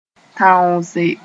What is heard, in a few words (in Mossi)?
"tãoose", moore; to hunt (game)